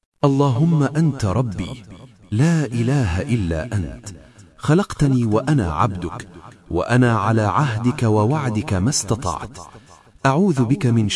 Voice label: syed ul istaghfar in arabic with urdu and english translation